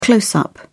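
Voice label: "close up", British English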